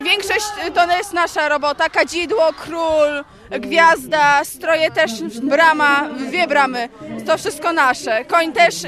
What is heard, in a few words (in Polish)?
mowi uczennica lubelskiego liceum plastycznego